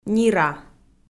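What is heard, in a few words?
"нира", Komi-Zyrian, female; kpv audio dict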